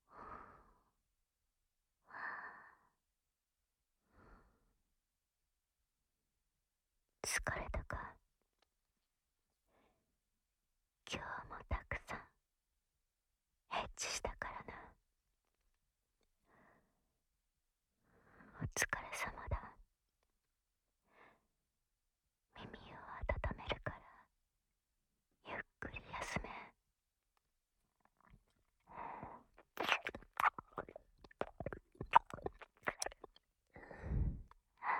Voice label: ぐぽぐぽ耳舐めで、まどろみ添い寝, ASMR

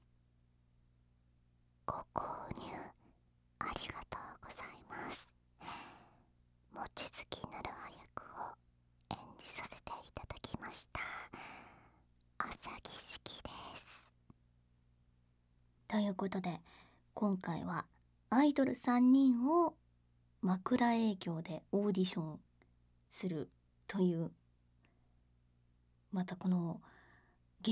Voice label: フリートーク; KU100

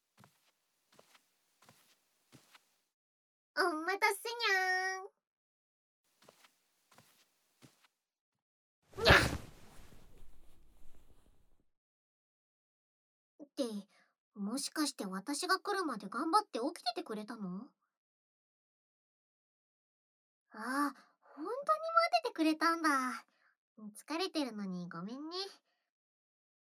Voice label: ラブラブささやきボイス♪

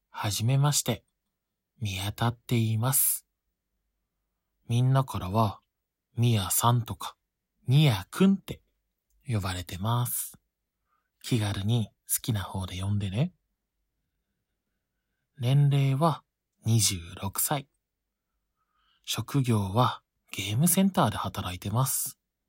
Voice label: 自己紹介, ASMR; 実演生音

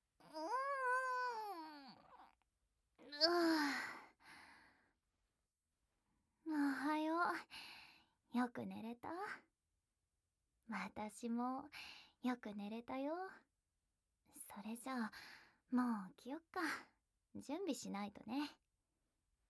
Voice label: 目覚ましボイス; Level1; 負けヒロインの白ギャルちゃん～頼りになる後輩くんと二人だけの秘密～

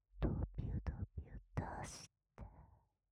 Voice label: 【左】セリフ, ASMR; 淫語

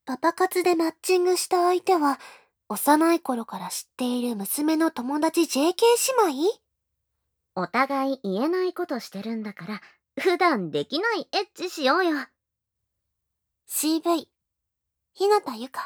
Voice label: タイトルコール, ASMR

